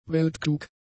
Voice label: "weltklug", German; adjectives